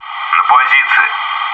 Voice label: "crawlspace", bot